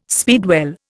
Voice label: "speedwell", Indian

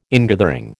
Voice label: "ingathering", American

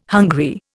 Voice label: "hungry", Indian

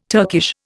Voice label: "Turkish", Indian

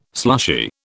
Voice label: "slushy", British

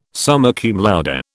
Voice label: "summa cum laude", British

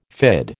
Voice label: "fid", American

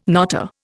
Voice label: "knotter", Indian

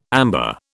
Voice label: "amber", British

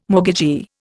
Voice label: "mortgagee", Indian

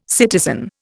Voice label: "citizen", Indian

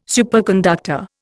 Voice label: "superconductor", Indian

